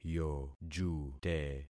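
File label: "yojute", concatenated syllables; Affixing experiment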